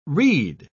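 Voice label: "rede", English, British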